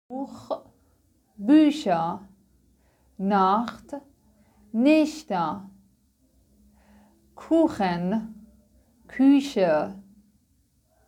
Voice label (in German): german